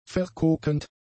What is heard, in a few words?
"verkokend", German; adjectives